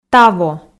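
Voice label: "таво", Komi, female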